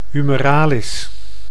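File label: "humeralis", Dutch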